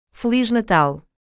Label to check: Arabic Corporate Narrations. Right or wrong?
Wrong